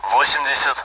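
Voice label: Speech Sound Russian Common AWACS Numbers; DCS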